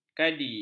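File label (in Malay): "kadi", Malay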